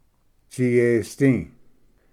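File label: "tsiyesdi", Cherokee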